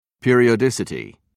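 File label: "periodicity", British English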